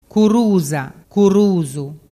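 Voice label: "currusarusu", Vernazzese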